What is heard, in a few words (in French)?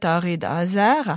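serra daretu à a, Corsican, Moita Verde (nord); Corse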